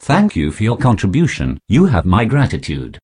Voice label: posh headcrab get a kill; garrysmod